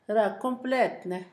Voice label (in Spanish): "se completa", Zapoteco Asunción Ocotlan; Verbos; INALI